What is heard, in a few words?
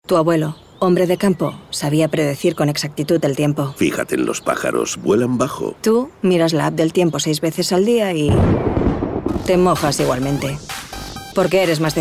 female spanish car comercial; ideasonora